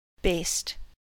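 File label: "baste", US English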